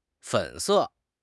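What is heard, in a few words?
"fen3 se4", Chinese; HSK; HSK2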